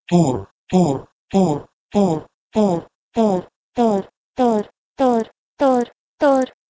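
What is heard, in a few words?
Proto-Indo-European tor to Marathi tar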